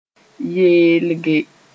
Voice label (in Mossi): "yeelge", moore